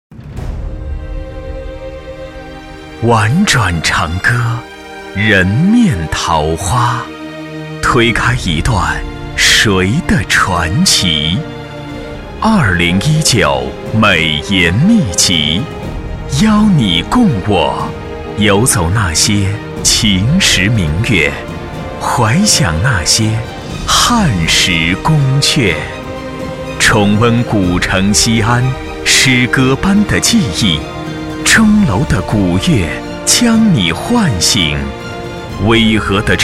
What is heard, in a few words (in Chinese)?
颁奖开场; 果感春夏